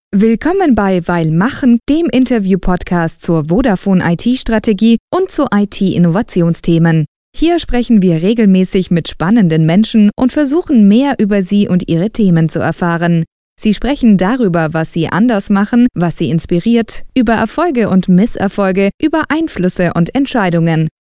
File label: GERMAN